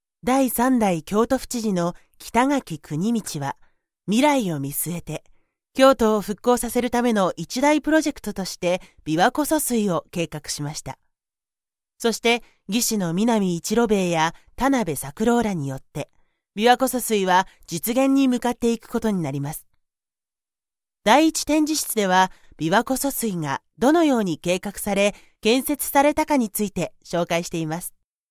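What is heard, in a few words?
3rd exhibition room japanese; Voice guidance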